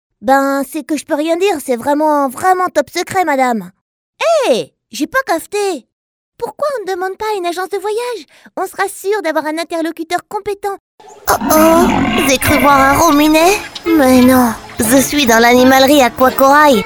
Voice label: female french voice over character, france